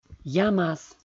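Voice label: "ямас", Komi, male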